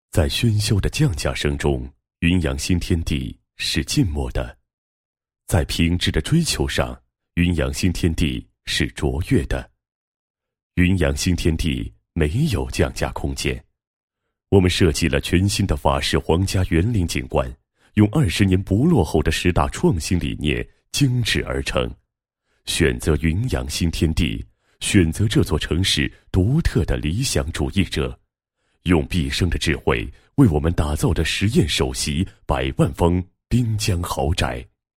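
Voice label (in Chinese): 男厚重大气-解说-地产录音, 国语男声配音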